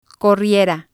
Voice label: "corriera", spanish